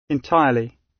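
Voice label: "entirely" British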